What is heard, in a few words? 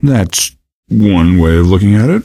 answer, male; Garry's Mod; hl2